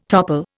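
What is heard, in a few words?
"topsail", Indian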